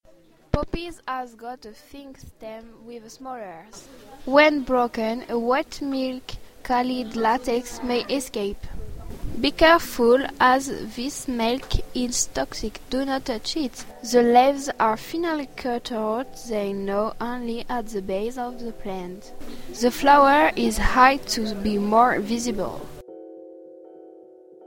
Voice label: texte anglais